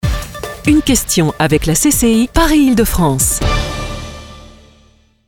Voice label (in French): Journaliste Lancement, voix off feminine france; CCI